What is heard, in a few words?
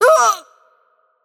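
Brazilian Portuguese AttackHeavy; bloom minigame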